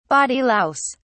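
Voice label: "body louse", British English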